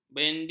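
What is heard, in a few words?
"bendi", Malay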